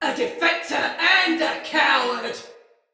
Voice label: Sight Lost Shout, Male, en; Battle; Barks; Dialog; Sinecure Banneret